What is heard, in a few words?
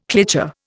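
"cliché", Indian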